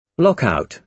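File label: "lockout", British English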